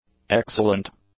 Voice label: "excellent", English; adjectives